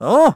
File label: vo confused; Crypt of the NecroDancer